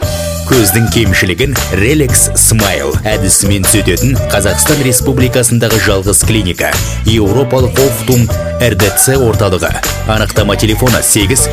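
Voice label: Kazakh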